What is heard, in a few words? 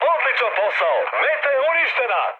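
Serbian bomb success mood high, speech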